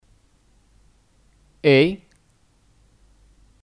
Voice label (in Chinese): "诶", Éi; Eh